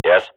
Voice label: radio bot reponse positive, Counter-Strike swat; Global Offensive; player vo